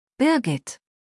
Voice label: "Birgit", deutsch; maedchennamen